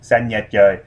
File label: blue, Vietnamese